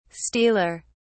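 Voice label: "stealer", British English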